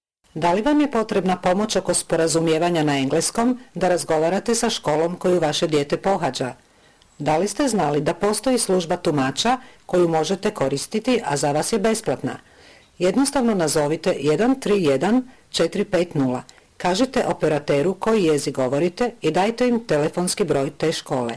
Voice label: bosnian; public schools; going to a public school